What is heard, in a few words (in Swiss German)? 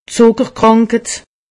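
"zuckerkranket", Haut Rhin; medecine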